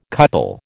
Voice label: "couple", American